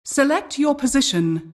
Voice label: announcer custom draft